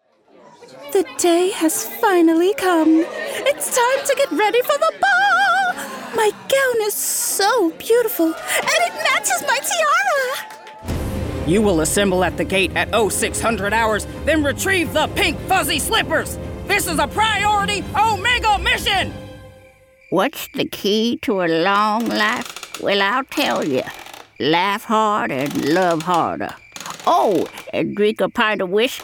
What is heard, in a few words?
Animation